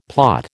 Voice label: "plot", American